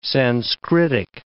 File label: "Sanskritic", English